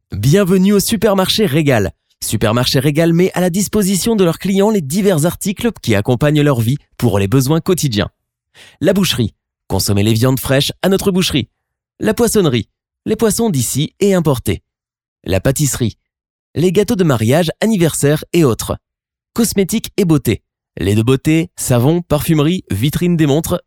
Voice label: French